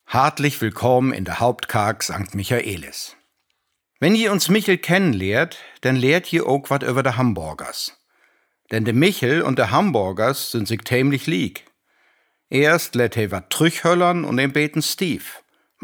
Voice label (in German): auf platt